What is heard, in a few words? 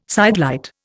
"sidelight", Indian